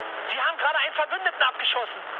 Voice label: player killed ally, mood med, speech ge